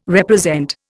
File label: "represent", Indian